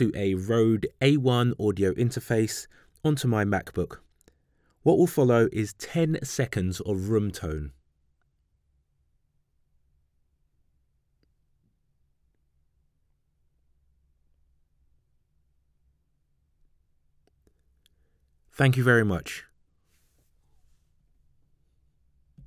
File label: RAW studio file